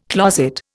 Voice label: "closet", Indian

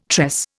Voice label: "tress", Indian

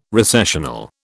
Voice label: "recessional", British

